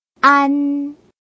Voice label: "ㄢ", Chinese